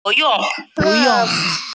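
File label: "bu4yong4", Mandarin Chinese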